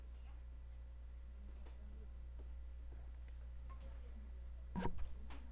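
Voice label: "clock", spoken word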